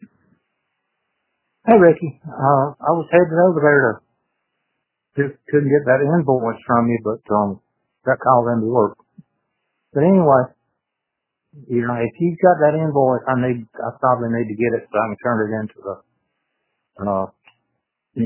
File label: Voicemail